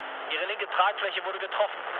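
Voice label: German left wing mood low, speech; War Thunder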